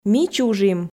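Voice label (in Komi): "ми чужим", Komi, female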